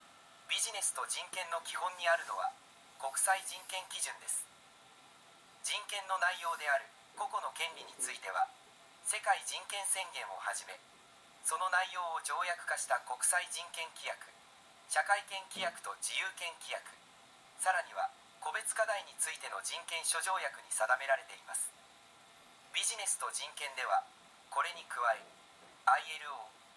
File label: elearning; japan; business and human rights